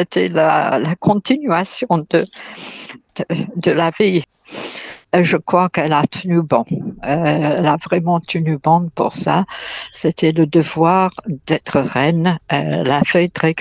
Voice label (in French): son anglaise en France